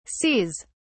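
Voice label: "seas", British English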